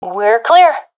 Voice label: sees area clear, professional fem; vo; agents; Source Model Packs; Counter Strike Model Pack; CS2